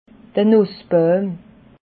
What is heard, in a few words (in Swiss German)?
"nussbauim", alsace